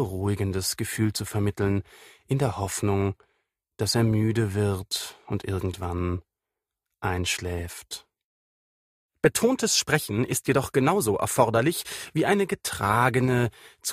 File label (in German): Werbung